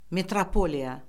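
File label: "metropoliya", Azerbaijani; Orfoqrafiya Lüğəti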